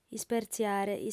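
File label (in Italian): "ispertziare", lingua sarda, fem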